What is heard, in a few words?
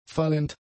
"fallend", German; adjectives